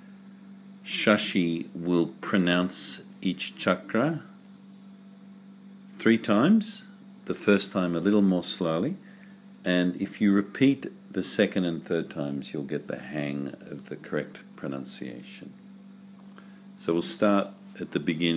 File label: Sanskrit Pronounciation Guide; Yoga Teacher Training; Wisdom for Life; Yogic philosophy; Principles of Sanskrit; Chakras Nadis Bandhas